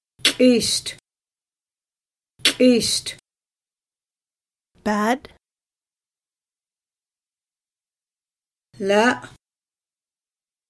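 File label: Personality Traits Word List, secwepemc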